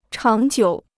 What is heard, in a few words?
"长久", Chinese